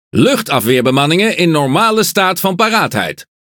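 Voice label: Speech, Dutch; Consumable AAC Normal; World Of Warships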